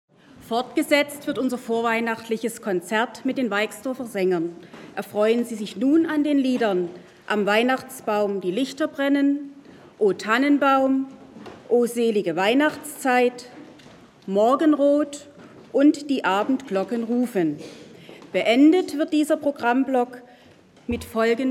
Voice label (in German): Ansage, Chor-Aufnahme